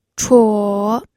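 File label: "chuo", pinyin, third tone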